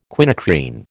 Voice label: "quinacrine", American